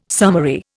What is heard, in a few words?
"summary", Indian